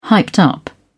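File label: "hyped up", British English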